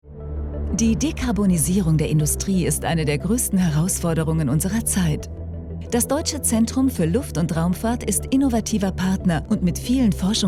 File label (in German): Imagefilm freudig motiviert; DLR